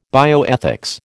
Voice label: "bioethics", American